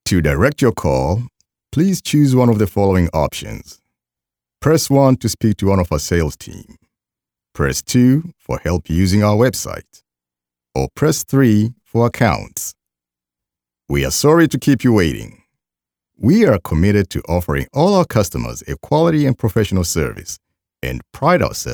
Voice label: Phone Prompt